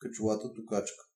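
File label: helmeted guineafowl, Bulgarian; animals; birds; tuxpaint-stamps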